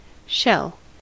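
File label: "shell", US English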